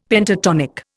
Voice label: "Pentatonic", Indian; Dictionary